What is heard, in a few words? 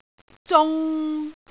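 "zung3", Cantonese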